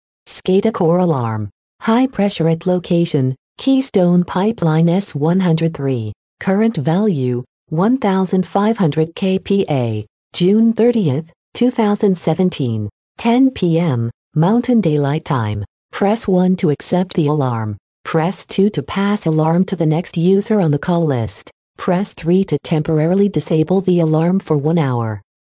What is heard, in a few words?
alarm callout high pressure notification